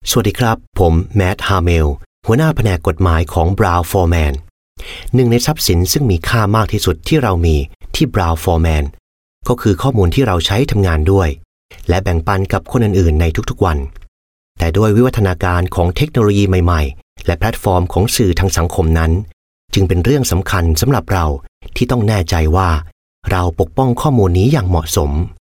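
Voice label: Thai Corporate Voice Over Male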